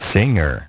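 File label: "singer", English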